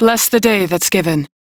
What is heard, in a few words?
Crew Greet, Female; Dialog; en; Warframe; Railjack Crew; New Loka